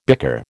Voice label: "bicker", American

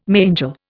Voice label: "mangel", Indian

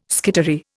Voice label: "skittery", Indian

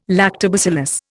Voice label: "lactobacillus", Indian

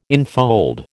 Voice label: "infold", American

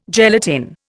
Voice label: "gelatin", Indian

